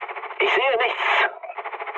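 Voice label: German chat, speech; War Thunder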